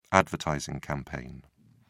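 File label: "advertising campaign", British English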